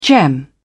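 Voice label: "gem", British English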